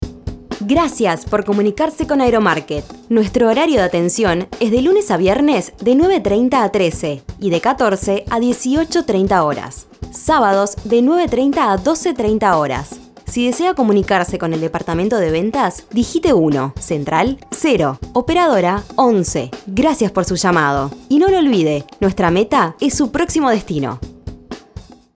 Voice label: contestador

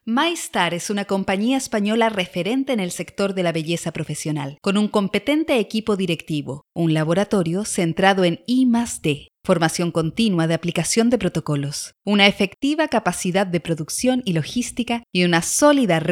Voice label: Corporativo

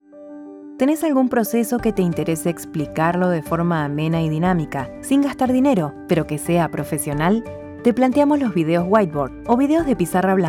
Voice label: e-learning arg

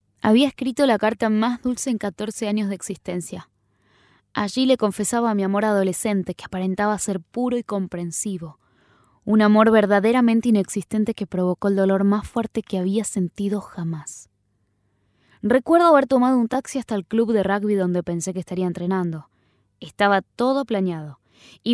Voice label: Audiolibro rioplatense

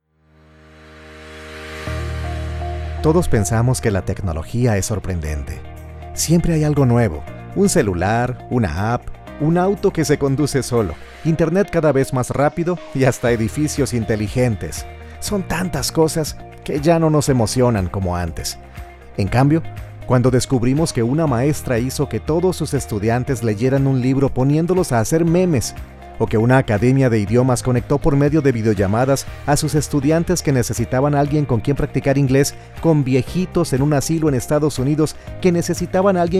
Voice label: manifiesto platicado

